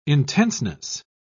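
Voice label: "intenseness", British English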